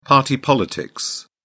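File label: "party politics", British English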